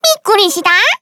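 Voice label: story, Japanese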